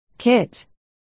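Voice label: "kit", spoken word, articulation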